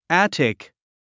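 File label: "attic", English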